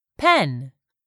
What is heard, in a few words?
"PEN", English; 3000 words